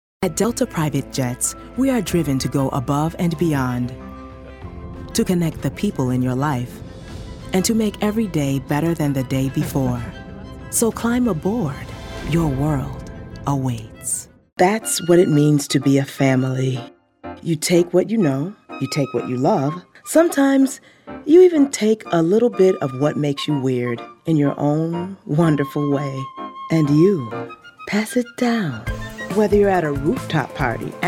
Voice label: Commercial, Women

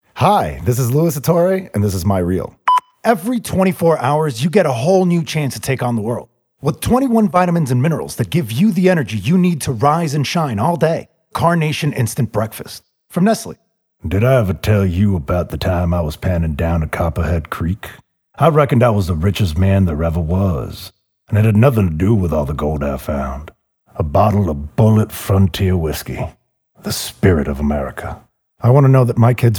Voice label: Men Commercial

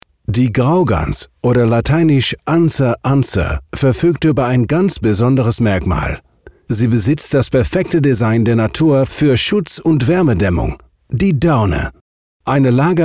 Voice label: German

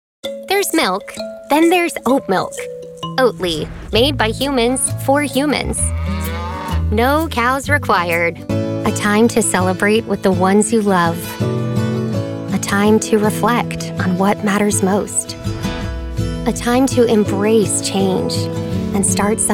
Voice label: comm, Women Commercial

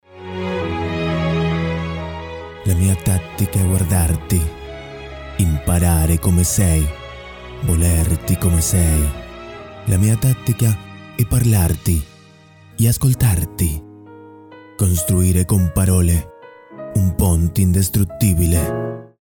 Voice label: ITALIAN

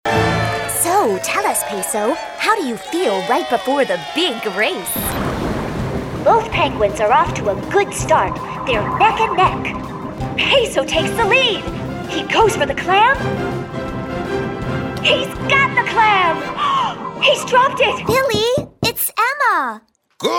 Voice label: Women Animation

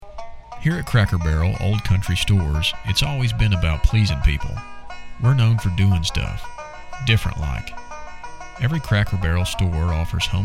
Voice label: SOUTHERN, Voicematch Soundalikes

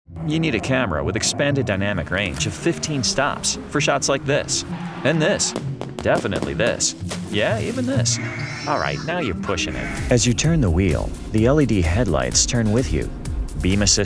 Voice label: Men Narration

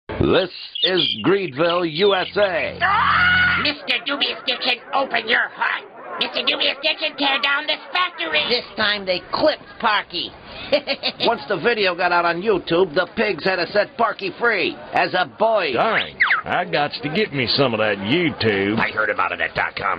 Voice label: Men Animation

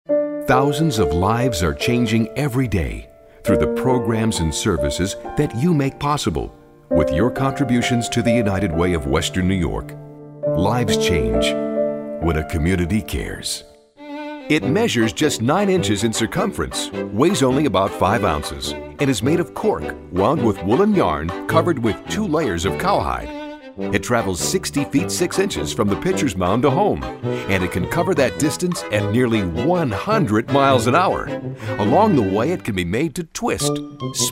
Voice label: Men Narration

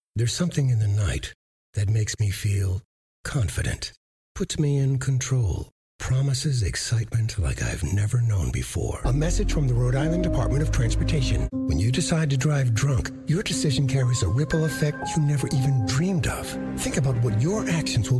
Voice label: US Commercial, Men

